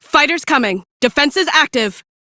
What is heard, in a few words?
Female; Warframe; Railjack; Cephalon Suda